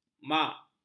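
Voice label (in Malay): "mak", Malay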